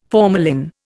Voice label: "formalin", Indian

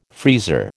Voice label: "freezer" American

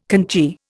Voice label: "conchy", Indian

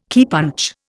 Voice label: "keypunch", Indian

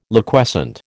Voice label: "Liquescent", American

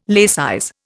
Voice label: "laicize", Indian

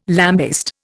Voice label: "lambaste", Indian

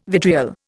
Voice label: "vitriol", Indian

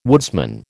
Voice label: "woodsman", American

